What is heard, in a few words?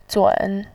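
"zun" Mandarin Chinese, third tone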